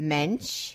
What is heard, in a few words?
"mentsh", Yiddish